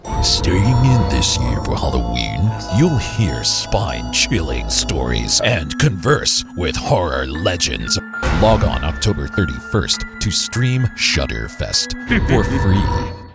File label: horror promo; Commercial & Promo; Shudder